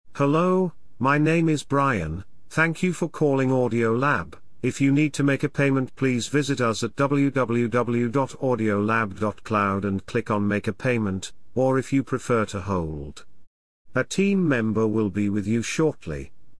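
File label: Neural British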